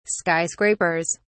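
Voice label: "skyscrapers", British English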